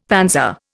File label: "panzer", Indian; Dictionary